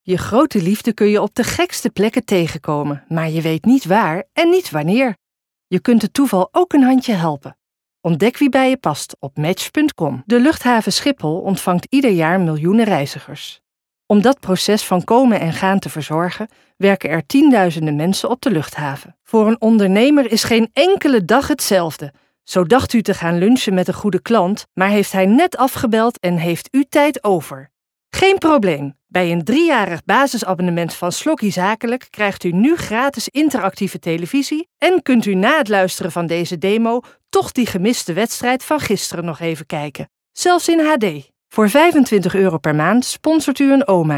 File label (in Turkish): Hollanda Kadin Felemenkce; hollandaca; seslendirme